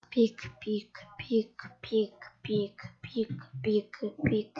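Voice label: "pick", spoken word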